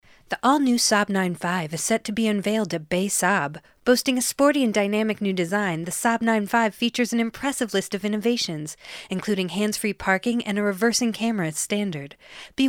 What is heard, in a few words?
Smooth Seductive; Saab; en-GB